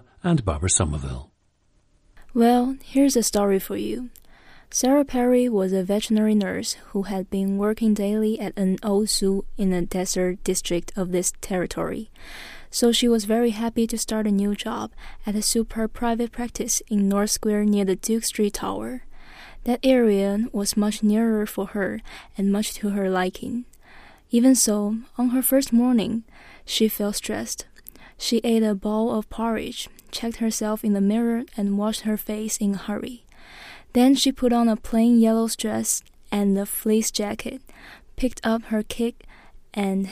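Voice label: jiangsu, dialects of English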